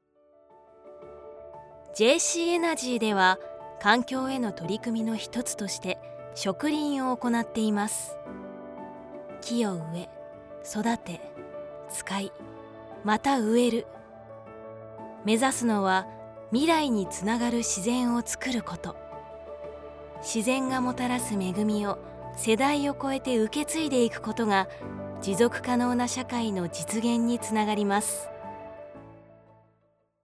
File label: narration woman; 企業PV